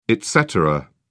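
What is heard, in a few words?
"et cetera", British English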